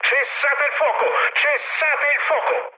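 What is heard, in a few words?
Italian player friendly fire mood med, speech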